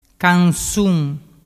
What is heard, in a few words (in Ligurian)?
"cansun", dialettu